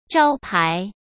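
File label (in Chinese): "招牌" Mandarin Chinese; chinese-flashcards; hsk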